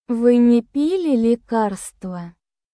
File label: russian phrases